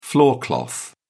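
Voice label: "floor cloth", British English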